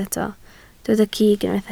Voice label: "cig", Welsh